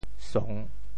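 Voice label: "song3", Teochew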